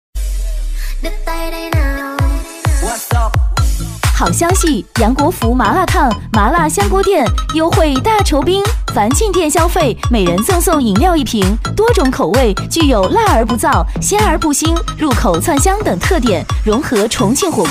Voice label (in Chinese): 亲切甜美女声 麻辣烫优惠大酬宾; 激情力度